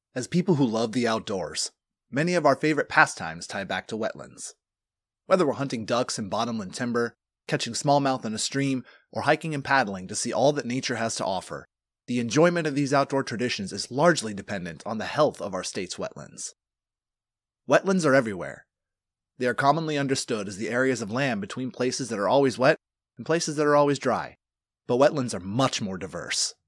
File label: Documentary